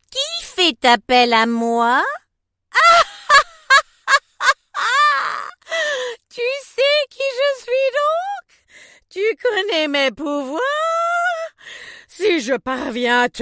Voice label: french animation

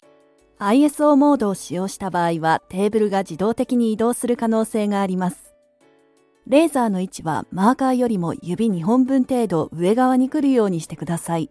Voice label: japanese e-learning

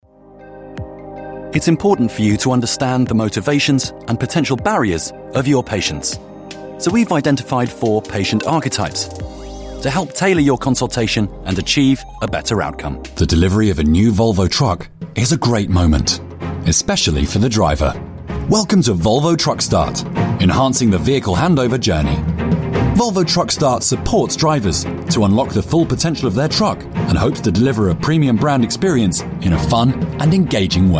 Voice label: english british e-learning

